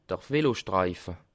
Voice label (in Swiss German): "velostreife", alsace